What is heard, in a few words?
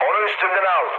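aircraft damaged, mood low, Turkish; War Thunder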